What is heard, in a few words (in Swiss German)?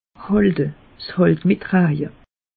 "halte", alsace; meteo